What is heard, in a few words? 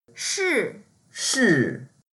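"shi4", Mandarin Chinese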